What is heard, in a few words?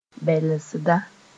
"bɛlsda", Mooré